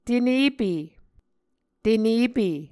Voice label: "tenepe", Muscogee

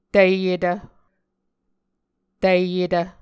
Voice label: "tiyetv", Muscogee

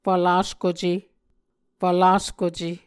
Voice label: "fvlaskuce", Muscogee

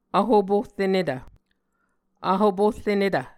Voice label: "vhoporrenetv", Muscogee

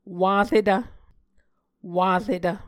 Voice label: "waretv", Muscogee

